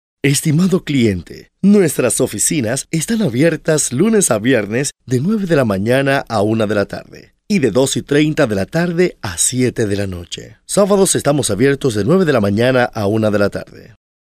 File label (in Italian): spagnolo Maschile